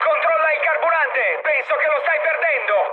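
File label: Italian tank damaged mood high, speech; War Thunder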